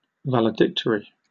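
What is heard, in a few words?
"valedictory", British English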